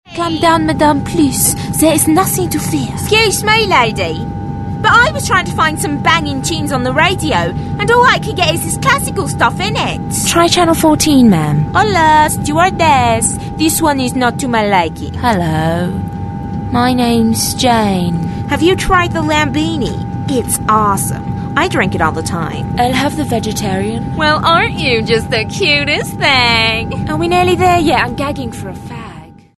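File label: montage of accents showcase; Voice Over for Animation and Cartoon Characters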